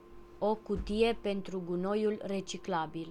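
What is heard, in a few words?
recycling box, Romanian; household; tuxpaint-stamps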